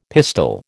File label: "pistil", American